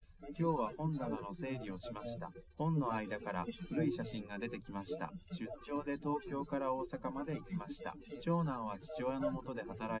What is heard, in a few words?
male, liverec, src